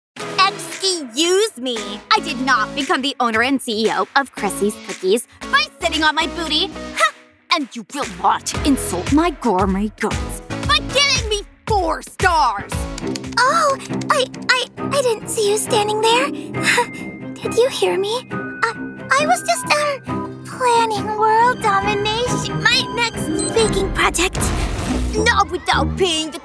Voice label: animation